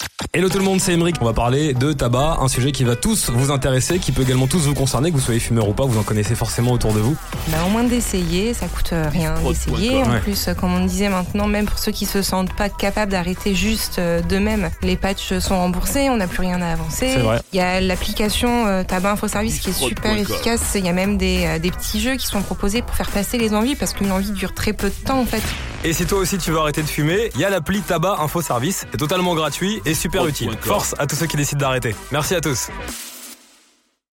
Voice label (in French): Spot Repiquage Outro; Skyrock; CNAM TIS